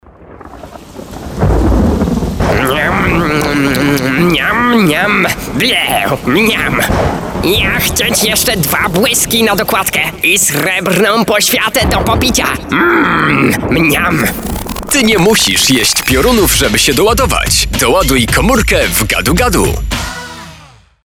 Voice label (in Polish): spot